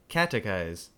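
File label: "catechize", US English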